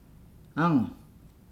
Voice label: "pearl", Nukuoro